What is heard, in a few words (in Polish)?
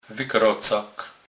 "wykrocak", Kurpie